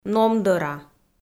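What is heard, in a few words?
"номдӧра", Komi, female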